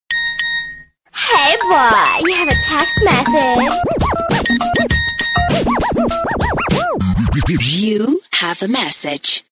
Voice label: Funky Girl Message Ringtone